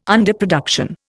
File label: "underproduction", Indian